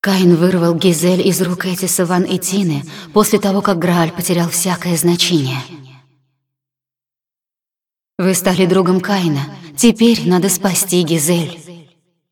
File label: cinematic voice, ru; lineage2